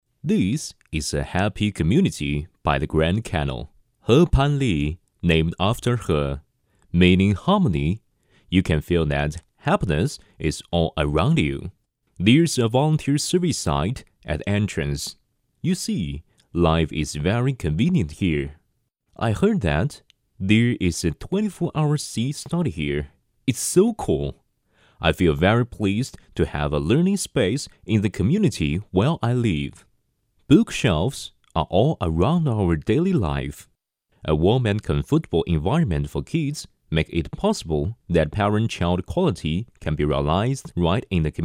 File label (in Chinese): 英语配音社区工作